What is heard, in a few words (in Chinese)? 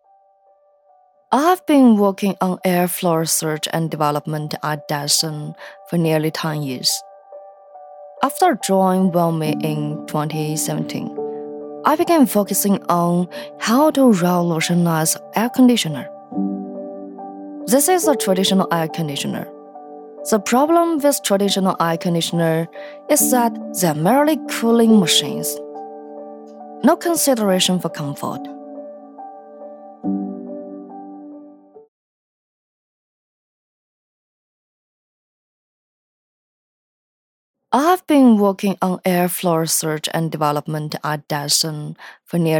女 英语 自述类